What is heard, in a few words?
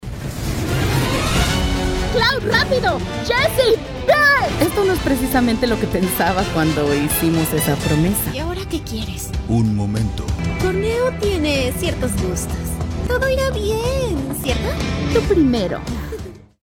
Video Games English